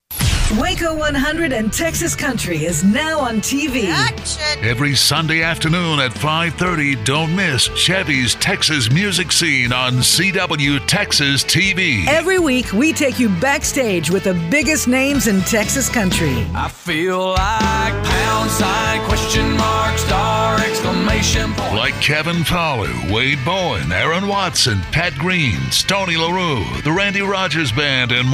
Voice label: Imaging Female

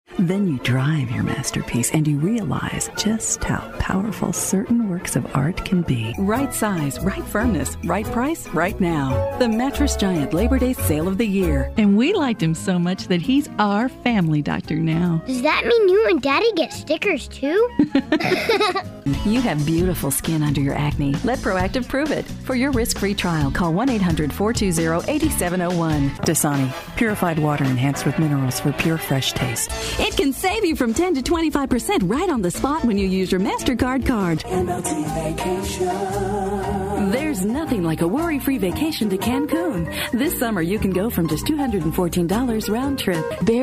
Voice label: Commercial Female

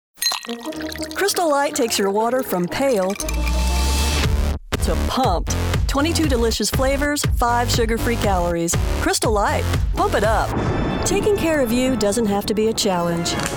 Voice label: Commercial Female; commercial voiceover

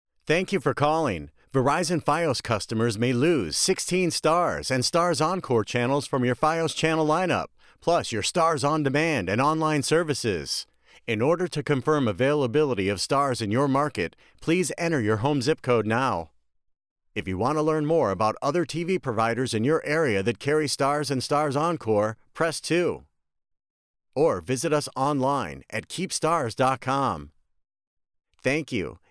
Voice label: Phone Prompts Male; commercial voiceover

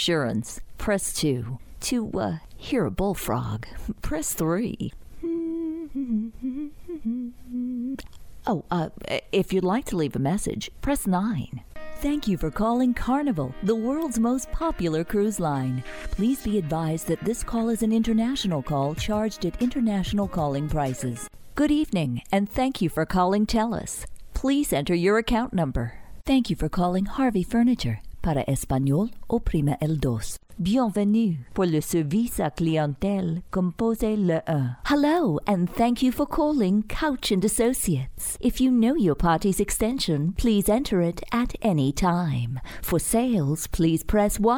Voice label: Phone Hold Female; Narration, Phone Hold/IVR

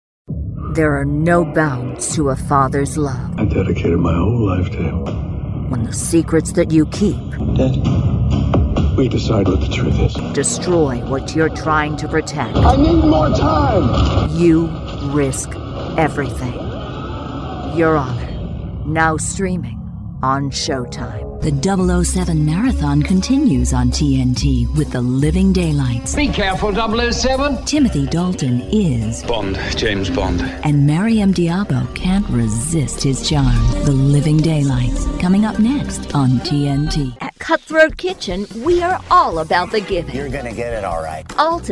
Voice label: promos female; Commercial Voiceover, Promo

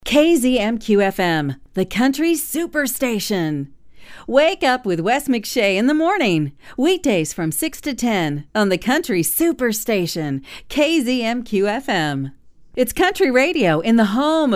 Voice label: Imaging Female; commercial voiceover

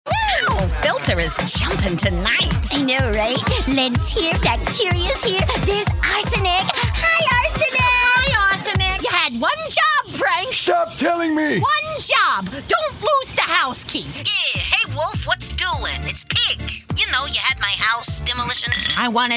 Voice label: Characters Female; commercial voiceover

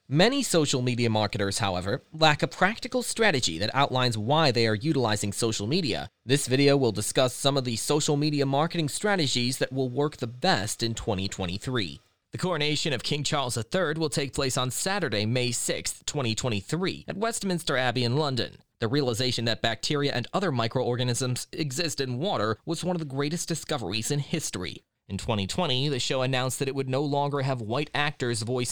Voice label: Narration Male Millenneal

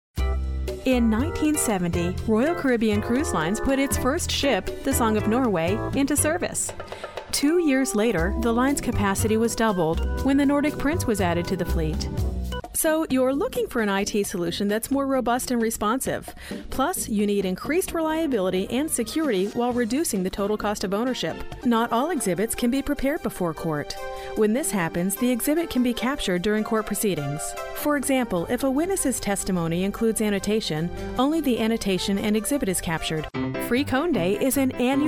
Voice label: Narration Female; commercial voiceover

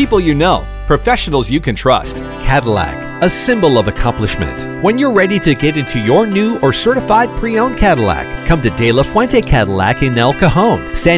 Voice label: Auto Dealer Male

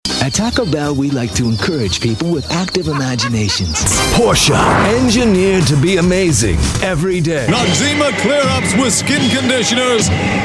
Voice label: commercial voice over

